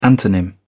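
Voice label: "antonym", British English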